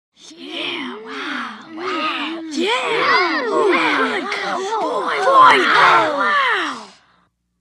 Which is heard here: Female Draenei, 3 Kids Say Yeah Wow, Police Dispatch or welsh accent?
3 Kids Say Yeah Wow